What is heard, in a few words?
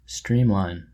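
"streamline" English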